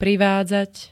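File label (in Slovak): "privádzať", Slovak; privádzať p. priviesť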